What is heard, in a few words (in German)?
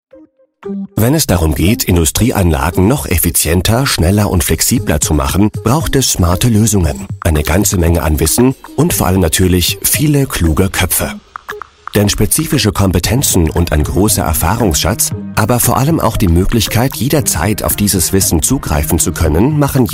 imagefilm